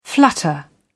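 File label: "flutter", British English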